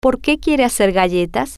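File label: Porque Quiere Hacer Galletas, Spanish, female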